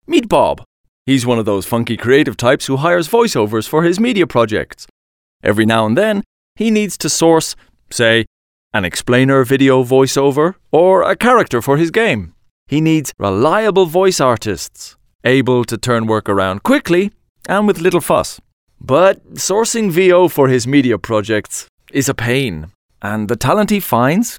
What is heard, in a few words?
english irish narration